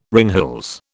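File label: "ringhals", British English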